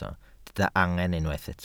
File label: "angen", Welsh